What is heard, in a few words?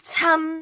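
"cam1", Cantonese; microsoft Hiu Maan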